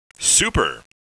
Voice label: happy - super; Spades Deluxe 1.6